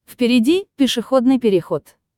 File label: Pedestrian crossing, speedcam ru; iGO